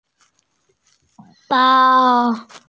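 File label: "bao1", Mandarin Chinese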